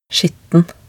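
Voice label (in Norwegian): "skitten", Norwegian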